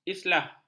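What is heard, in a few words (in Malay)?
"islah", Malay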